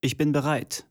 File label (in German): german voice; wyrmsun